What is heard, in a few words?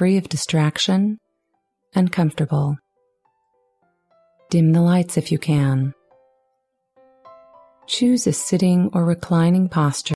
Mindful Meditation